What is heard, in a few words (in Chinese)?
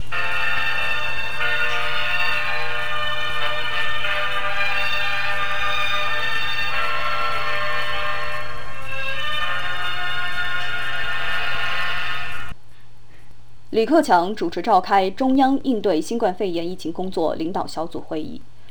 新闻